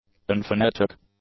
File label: "unphonetic", english; adjectives